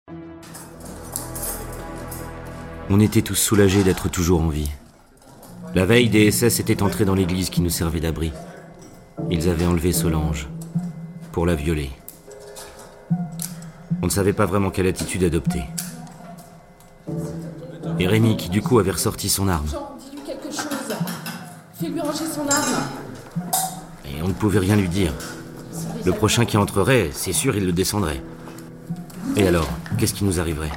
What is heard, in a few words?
narration; Enregistrements dans la cabine speak